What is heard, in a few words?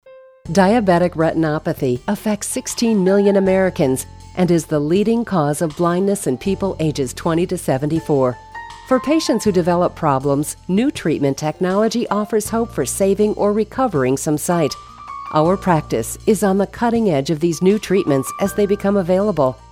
eye clinic, female voices; audio on hold